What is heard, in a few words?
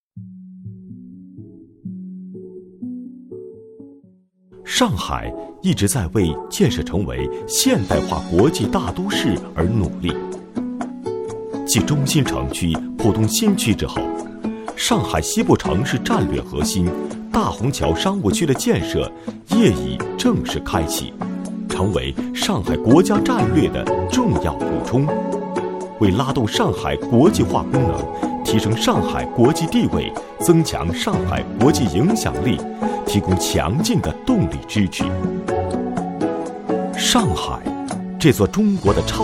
boy